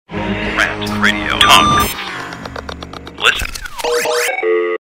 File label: Promo Talk; Rant Radio Talk; RantMedia; Station Promos-Listener Submitted